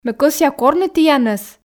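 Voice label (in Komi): ме кӧсъя корны тіянӧс, Komi, female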